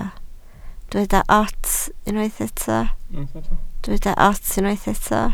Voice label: "ots", Welsh